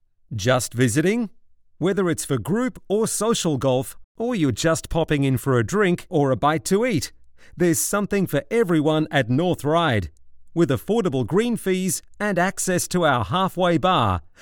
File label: ON HOLD